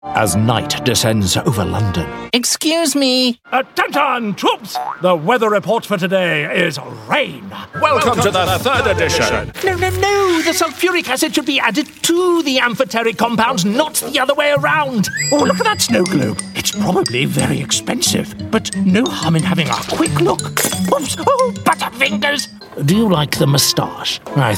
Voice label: animation

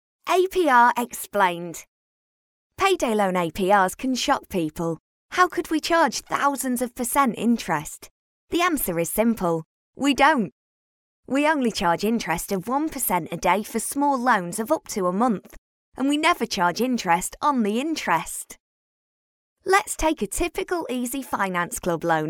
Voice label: narration

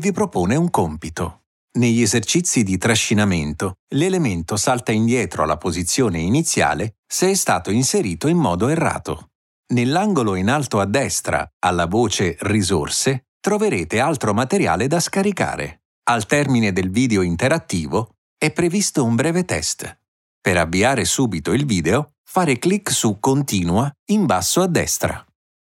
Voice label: italian male voice e-learning

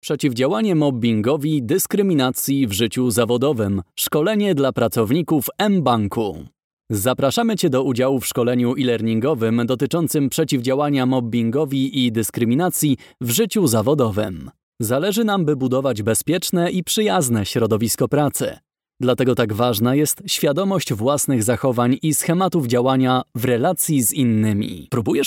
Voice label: polish voiceover corporate

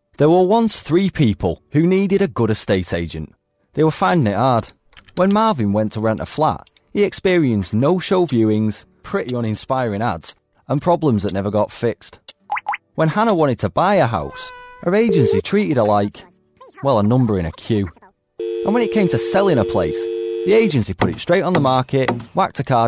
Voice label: corporate

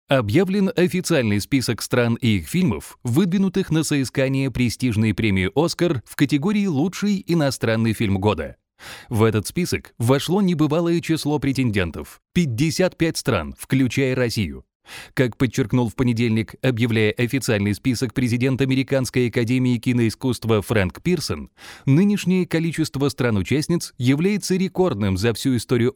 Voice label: russian belarusian male russian audiobook

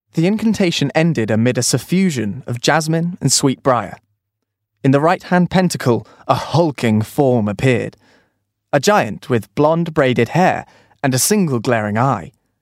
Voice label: audiobook

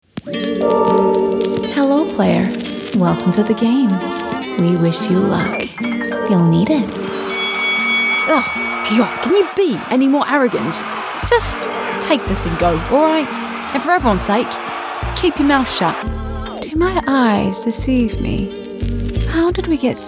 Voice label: new zealand voiceover videogame

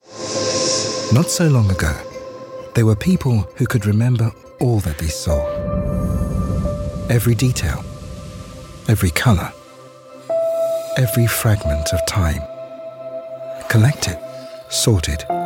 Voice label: british african storytelling

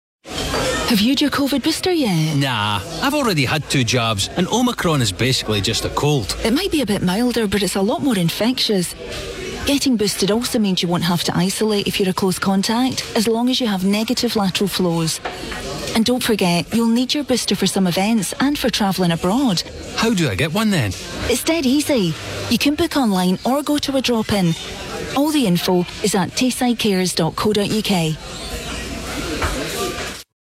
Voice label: radio ad; tayside carers

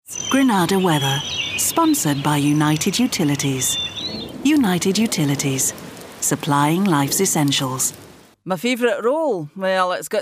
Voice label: tv sponsorship

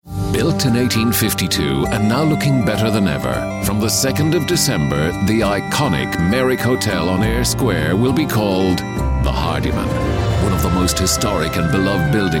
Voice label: galway commercial; hardiman

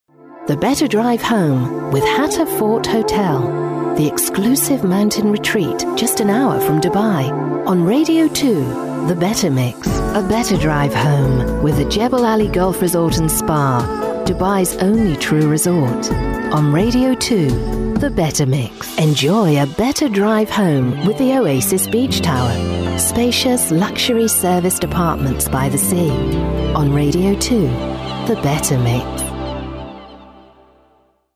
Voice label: promos

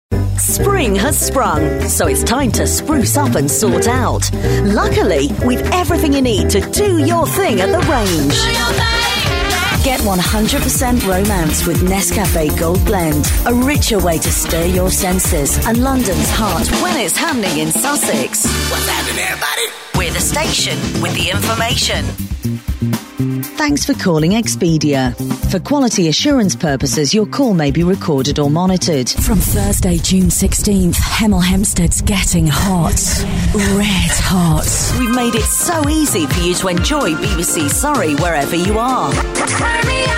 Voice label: commercial montage

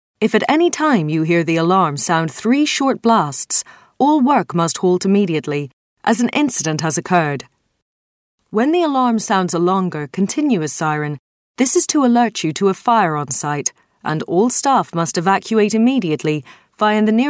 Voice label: Irish voice, mid atlantic

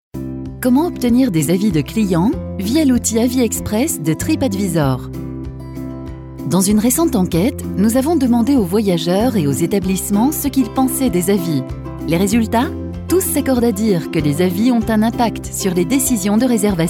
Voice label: french female narration

